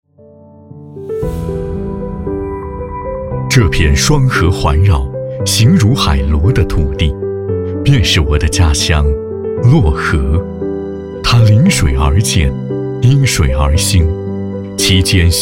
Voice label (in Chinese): 男 工程宣传; 漯河泰山路桥